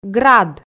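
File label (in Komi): "град", Komi, female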